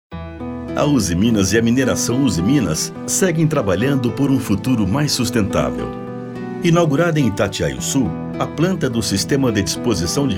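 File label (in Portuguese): Voz Padrão - Grave, Spot, Masculino, Português - Brasileiro; USIMINAS Spot Dry Stacking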